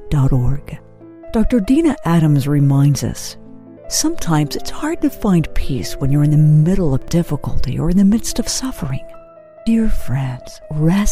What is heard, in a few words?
Narration